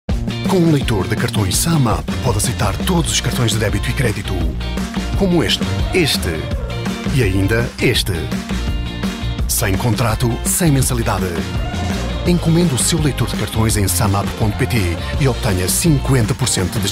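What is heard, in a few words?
Portuguese commercial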